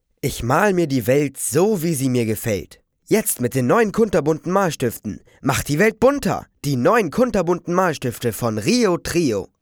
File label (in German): Jungen